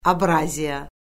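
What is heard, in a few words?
"abraziya", Azerbaijani; Orfoqrafiya Lüğəti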